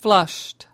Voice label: "flushed", us